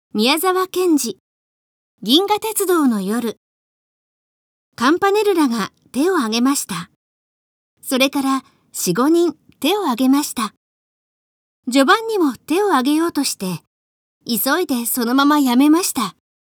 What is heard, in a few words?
girl; 銀河鉄道の夜